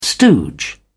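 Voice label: "stooge", English, British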